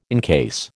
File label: "incase", American